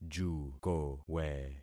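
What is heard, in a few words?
"jukowe", concatenated syllables; Affixing experiment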